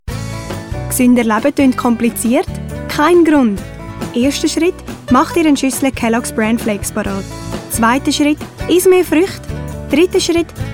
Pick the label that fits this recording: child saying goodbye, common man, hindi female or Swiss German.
Swiss German